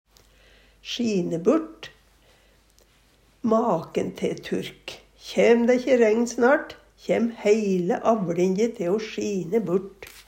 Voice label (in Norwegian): "sjine burt", Numedalsmål